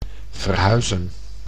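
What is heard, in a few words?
"verhuizen", Dutch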